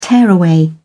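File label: "tearaway", British English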